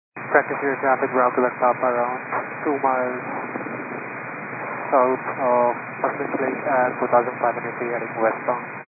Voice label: 122 925MHz, scanner radio